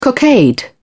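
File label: "cockade", British English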